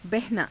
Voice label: "bahanaa", hindi

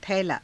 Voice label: "thailaa", hindi

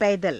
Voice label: "paidara", Hindi

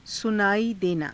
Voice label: "sunaaii denaa", hindi

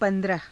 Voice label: "pa^draha", Hindi

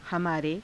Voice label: "hamaare", Hindi

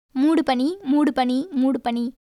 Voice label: Tamil

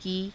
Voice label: "kii", Hindi

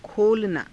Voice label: "kholanaa", Hindi

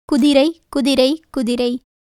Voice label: Tamil

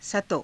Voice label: "sato", hindi; सतो; 佐藤

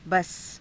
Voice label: "basa", hindi

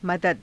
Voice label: "madada", Hindi

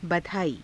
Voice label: "badhaaii", hindi; [女]祝い，祝辞; बधाई

